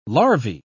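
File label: "larvae", British English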